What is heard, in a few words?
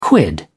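"quid", British English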